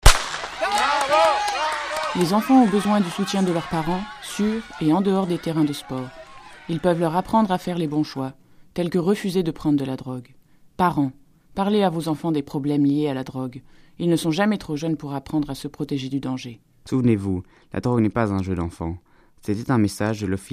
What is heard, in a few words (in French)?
French